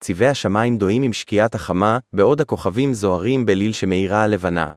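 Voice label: Hebrew, Israel; iOS Sounds; ziv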